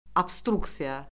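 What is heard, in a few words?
"obstruksiya", Azerbaijani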